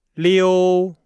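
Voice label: "liu", Chinese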